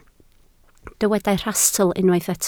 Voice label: "rhastl", Welsh